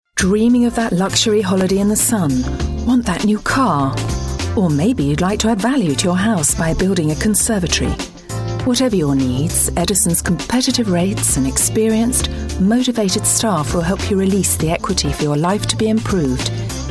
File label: on hold message, uk